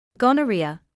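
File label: "gonorrhoea", British English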